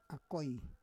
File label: "akoi", Roon